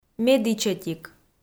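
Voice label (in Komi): "медічӧтик", Komi, female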